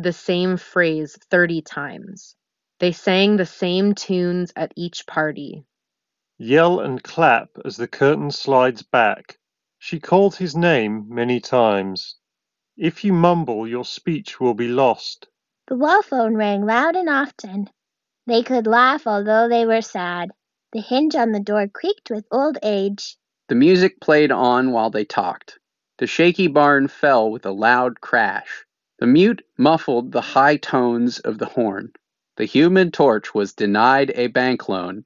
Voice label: Ideal microphone sample; Amazon Echo Buds 2023

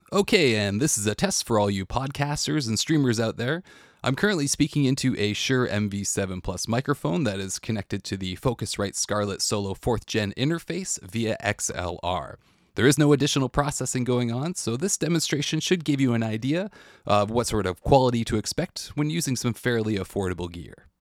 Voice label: Podcast; Scarlett Solo; focusrite

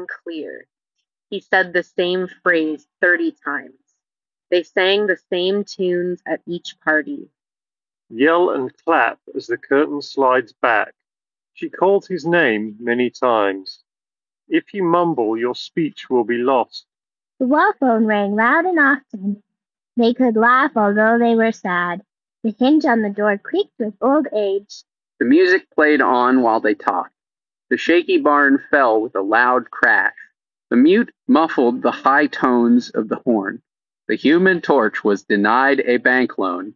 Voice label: Street microphone sample; Nothing Ear

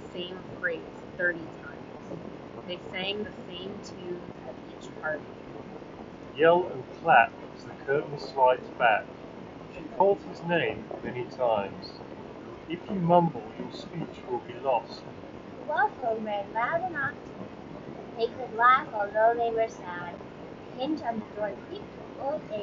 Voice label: Wind microphone sample; Anker Soundcore Life Q20

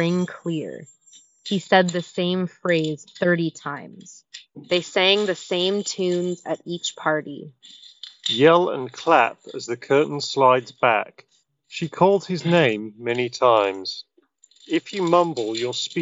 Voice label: Office microphone sample; Nothing Ear 2

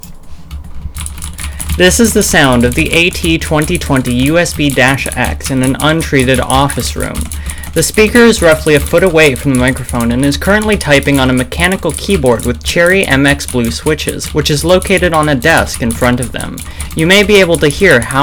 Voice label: AT2020USB-X Untreated Office with Keyboard; audio technica

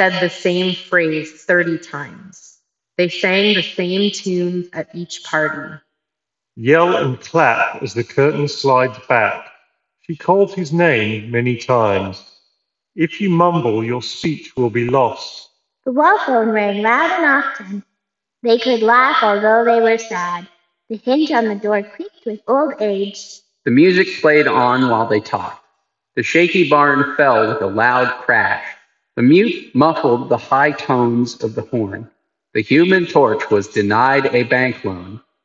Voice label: ASUS ROG CETRA TRUE WIRELESS SPEEDNOVA reverb

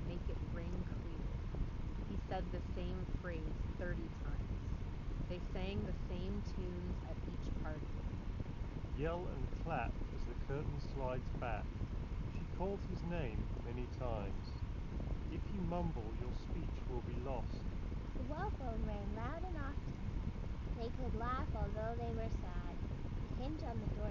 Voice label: Wind microphone sample; Beats Studio Pro

